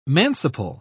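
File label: "manciple", British English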